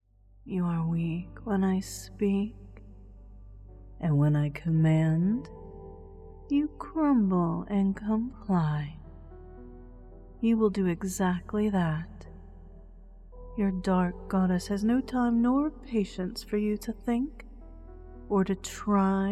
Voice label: Firm, 3D / ASMR; Dark Objective; Submissive Training